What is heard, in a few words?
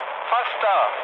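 German near waypoint mood low, speech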